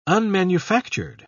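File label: "unmanufactured", English, British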